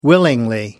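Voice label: "willingly", British English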